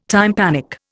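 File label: "tympanic", Indian English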